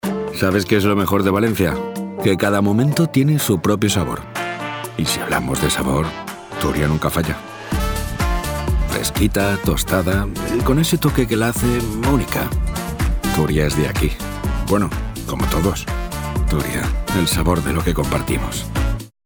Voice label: spanish announcements